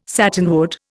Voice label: "satinwood", Indian

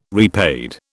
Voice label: "repaid", British

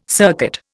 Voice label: "circuit", Indian

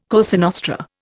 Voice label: "Cosa Nostra", Indian

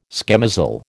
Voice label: "schemozzle", American

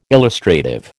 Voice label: "illustrative", American

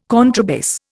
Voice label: "contrabass", Indian

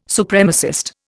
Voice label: "Supremacist", Indian

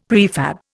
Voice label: "prefab", Indian; Dictionary

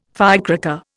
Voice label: "firecracker", Indian

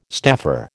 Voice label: "staffer", American

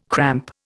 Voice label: "cramp", Indian

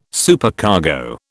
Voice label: "Supercargo", British

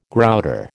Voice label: "grouter", American

